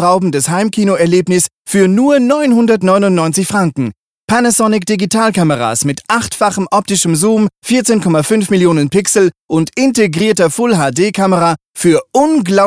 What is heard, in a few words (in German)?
Werbung Elektro DE; Brändli